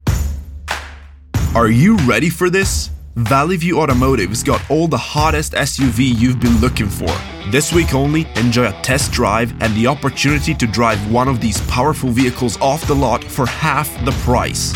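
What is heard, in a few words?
Italian, English accent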